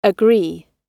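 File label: "agree", British English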